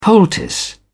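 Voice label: "poultice", British English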